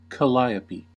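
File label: "calliope" English, American accent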